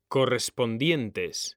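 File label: "CORRESPONDIENTES", Spanish; mekanta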